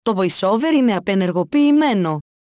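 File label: Greek VoiceOver Off; EFI Audio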